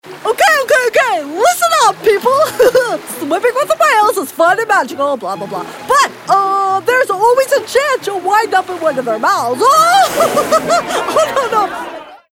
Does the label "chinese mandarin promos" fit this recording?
No